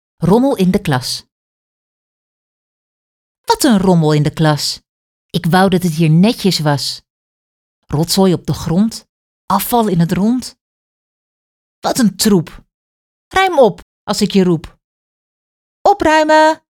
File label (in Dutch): Rommel in de klas gedicht; afval in de klas; leerjaar-2; taalsprong